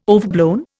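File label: "overblown", Indian English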